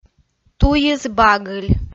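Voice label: "туйыс багыль", Komi, female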